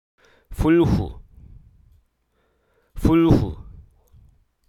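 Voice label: Hruso Aka; cow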